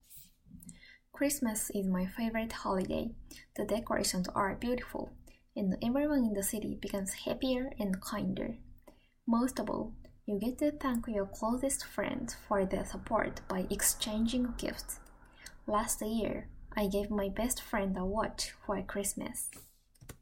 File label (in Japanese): 女性20代